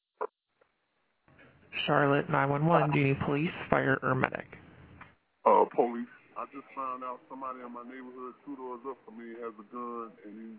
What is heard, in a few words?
911 Call